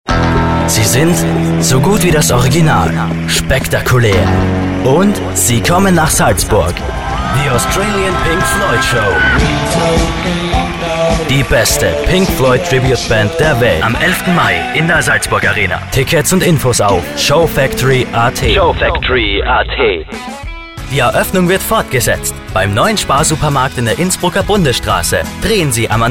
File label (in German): Sprecher Kinder Showreel; Kinderstimmen